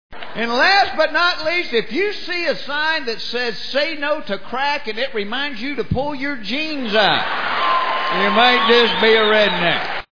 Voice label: redneck crack